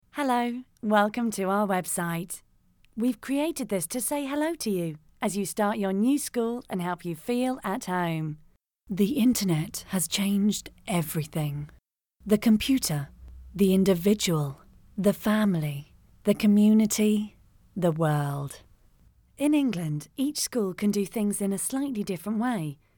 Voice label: e learning